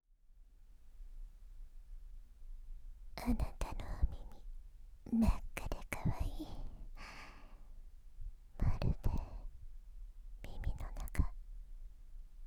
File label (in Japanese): 耳舐め・乳首いじり・手コキ; ぐっぽり耳舐めとエッチな時間